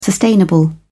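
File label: "sustainable", British English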